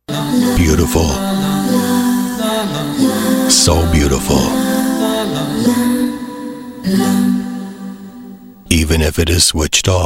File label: COMMERCIALS